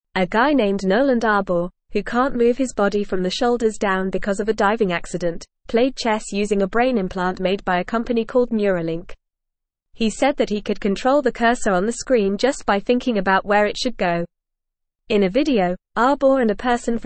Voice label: English Newsroom Upper Intermediate FAST Reading; Paralyzed Patient Plays Chess Using Brain Implant